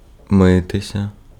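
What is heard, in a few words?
Ukrainian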